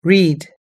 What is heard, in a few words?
"read", us-english